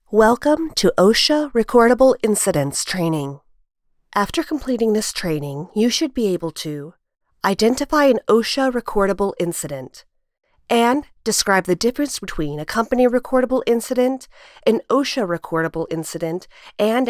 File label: e-learning